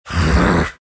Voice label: anger; dota; earth spirit